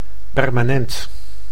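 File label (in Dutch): "permanent", Dutch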